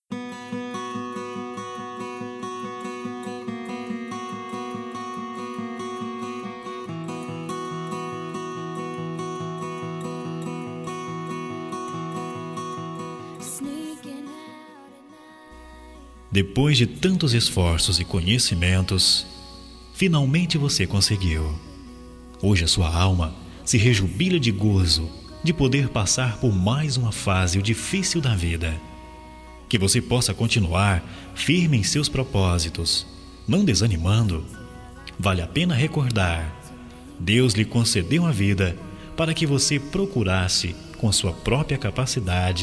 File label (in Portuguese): APROVADO NO VESTIBULAR, masc; Telemensagens; Parabenização